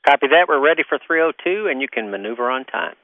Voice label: NASA ready for 302 maneuver on time, Space Shuttle Communications; VOCALS and SPOKEN WORD